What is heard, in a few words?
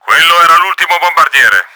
AIRSTRIKE OVER, Italian; Battle Engine Aquila; XBOX